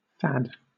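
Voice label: "fad", British English; pronunciations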